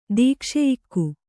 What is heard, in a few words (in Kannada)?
"ದೀಕ್ಷೆಯಿಕ್ಕು", kannada